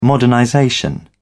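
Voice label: "modernization", British English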